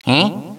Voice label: "huh", Echo inside caves, underground; DS; ML BOWSERS INSIDE; Luigi